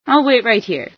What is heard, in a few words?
ill wait here, female